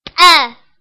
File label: "'t", tigrinya letters alphabet sounds